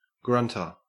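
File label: "grunter" Australian English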